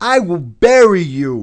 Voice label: cuss, profanity, male; kingpin

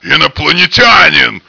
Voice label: alien

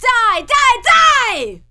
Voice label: fight, female; kingpin; hiredgirl; orders

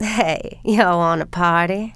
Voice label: "party", female; kingpin; yolanda

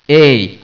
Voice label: stimulating, scientist

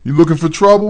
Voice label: male neutral talk; kingpin

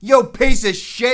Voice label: cuss, profanity, male; kingpin

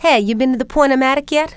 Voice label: pawn o matic, female; kingpin; yolanda

